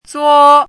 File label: "zuo1", pinyin